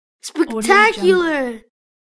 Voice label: boy says spectacular word